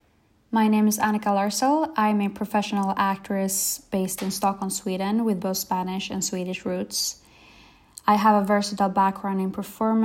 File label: Presentation American English